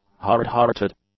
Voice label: "hard-hearted", English; adjectives